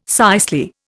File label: "cicely", Indian